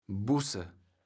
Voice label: "Busse", kaevels platt

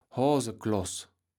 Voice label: "Hoasekloß", kaevels platt

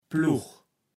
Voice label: "Plugg", kaevels platt

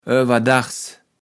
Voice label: "owerdags", kaevels platt

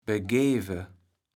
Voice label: "begeve", kaevels platt

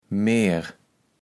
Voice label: "merr", kaevels platt

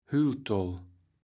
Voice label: "Hülltoll", kaevels platt

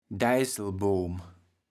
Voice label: "Deisselboom", kaevels platt

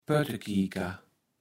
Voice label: "Pöttekieker", Platt

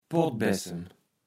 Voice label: "Pottbessem", Platt

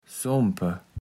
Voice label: "sompe", Platt

